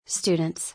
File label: "Students", English